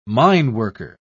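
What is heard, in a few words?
"mineworker", British English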